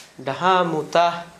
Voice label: small pot pitcher, Gta, Dialect: Hill; household

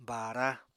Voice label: "baara", Apatani

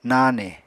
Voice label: "nane", Apatani

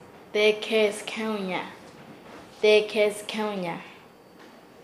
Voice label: "pasar hacia abajo", Nasa Yuwe; paez

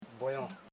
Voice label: "ro jon", house parts Koro

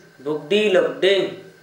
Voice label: elope, Gta, Dialect: Hill; bugɖi log=ɖiŋ; elope, marry (of females)

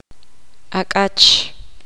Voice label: poqomam; pollo

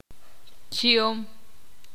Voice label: ixil

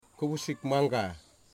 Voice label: "heart", Wamut

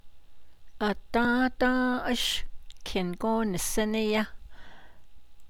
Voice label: Did you go to the store yesterday, Navajo

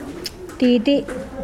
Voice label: "breasts", Mokilese

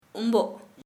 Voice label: "no", Kharia

